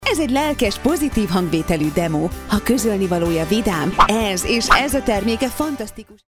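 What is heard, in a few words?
Hungarian, female, commercial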